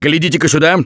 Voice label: look here, russian; Gambler

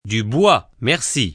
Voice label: wood, please, taunt

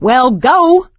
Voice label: emphatic go, survivor voice; Left 4 Dead 2; producer

